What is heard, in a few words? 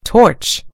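"torch", British English; gcompris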